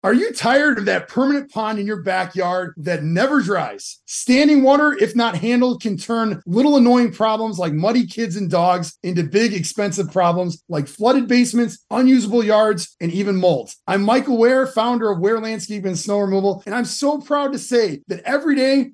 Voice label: Radio ad